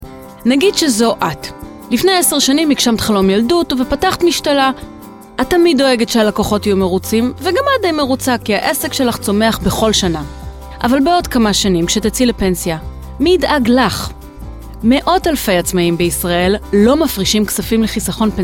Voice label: infomercial